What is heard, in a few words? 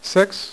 "6", poker; iPoker 2000